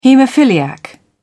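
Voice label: "haemophiliac", British English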